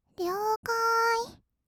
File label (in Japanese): "りょーかーい", kawaii voice; ASMR; 色々なワンショット